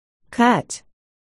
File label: "cut", articulation